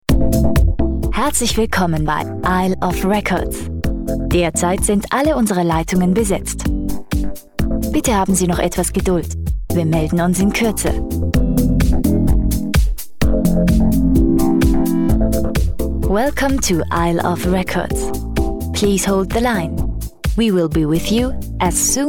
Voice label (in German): Sprechsample Tonbandansage